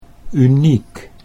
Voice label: "uniek", Dutch